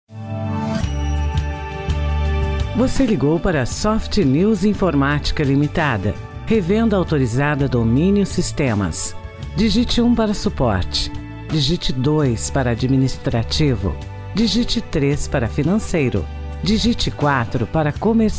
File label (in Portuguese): gravacao atendimento diurno menu principal; soft news